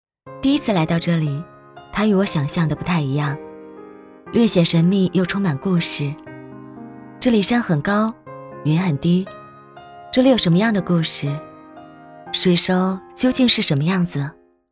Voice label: 女,自述,税收女干部

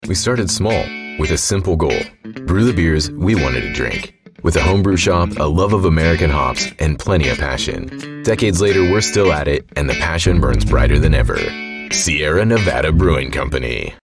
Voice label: 外籍英男 啤酒宣传片

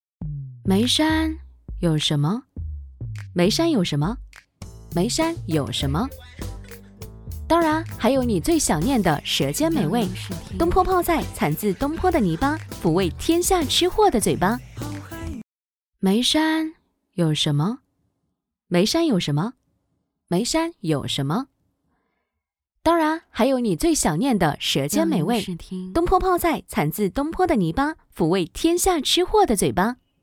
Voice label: 女 洋气TVC 广告

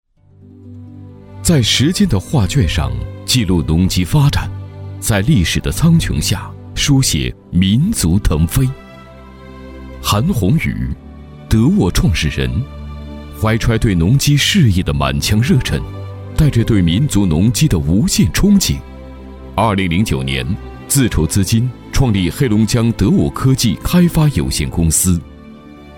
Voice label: 男 企业专题; 德沃集团

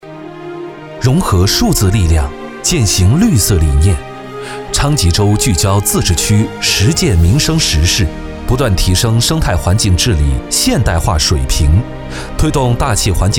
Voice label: 男, 年轻专题

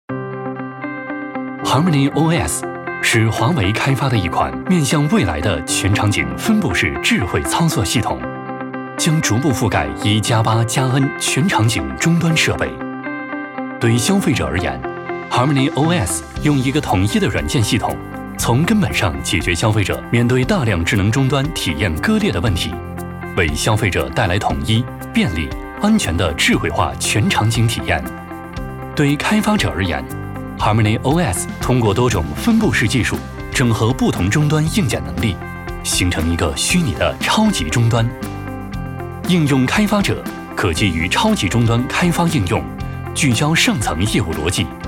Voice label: 男 科技感; 华为系统

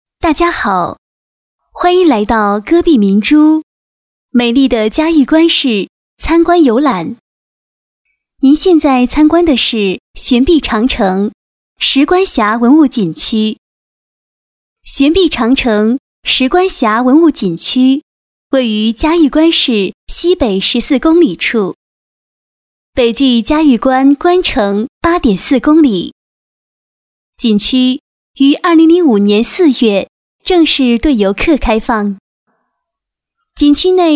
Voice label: 女 景区介绍

